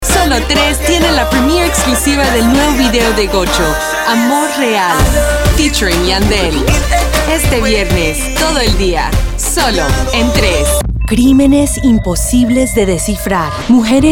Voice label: promo sp; promo demo